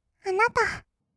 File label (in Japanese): "あなた", kawaii voice; ASMR; 二人称; 1200 kawaii voice!!